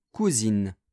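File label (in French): "cousines", French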